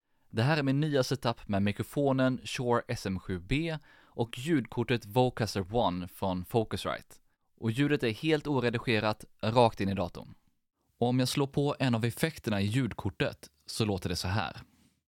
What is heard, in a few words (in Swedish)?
ljudtest shure sm7b